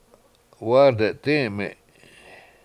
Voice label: "wAAde7dIIme7", Washo; It Grows Here